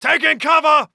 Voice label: uk Neutral